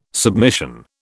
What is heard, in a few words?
"submission", British